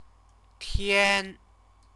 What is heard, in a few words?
"tian", Chinese